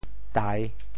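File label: "tai3", Teochew; 潮州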